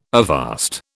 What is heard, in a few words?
"avast", British